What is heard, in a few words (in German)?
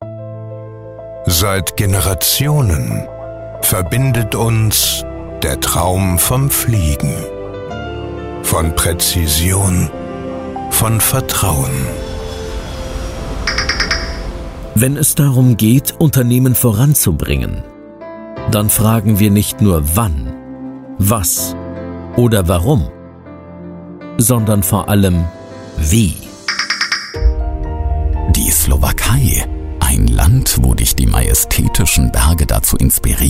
DE Sprecher fuer Imagefilm; voice-over vertonung